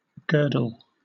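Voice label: "girdle", British English; pronunciations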